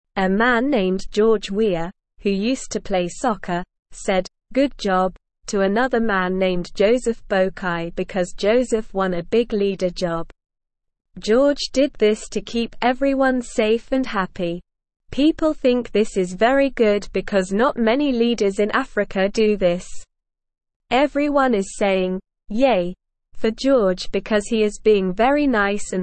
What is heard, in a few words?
English Newsroom Beginner SLOW Reading; George Weah Congratulates Joseph Boakai Making Liberia Happy